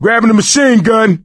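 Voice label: take submachine gun, voice, male; Left 4 Dead 2; coach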